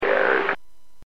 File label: Aviation 121.5 Emergency